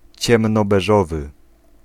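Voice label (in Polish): "ciemnobeżowy", Polish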